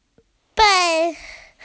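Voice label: "bei1", Mandarin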